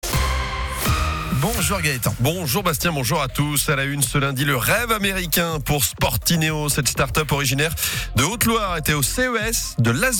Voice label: Radio; SCOOP; CES Las Vegas